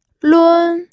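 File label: "lun", first tone, pinyin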